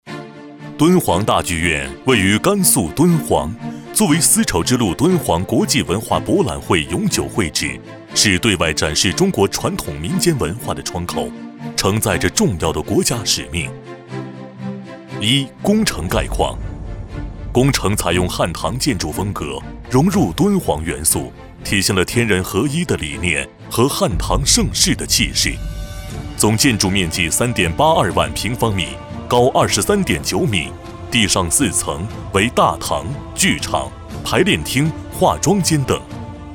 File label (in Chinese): 男 多媒体 汇报片 干练大气; 敦煌大剧院多媒体汇报片